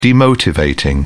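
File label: "demotivating", British English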